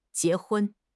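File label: "jie2 hun1", Mandarin Chinese; HSK3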